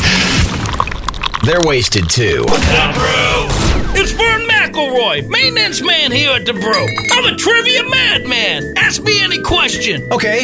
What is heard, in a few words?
Rock Imaging